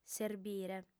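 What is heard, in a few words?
"serbire", lingua sarda, female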